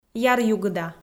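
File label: "яръюгыда", Komi, female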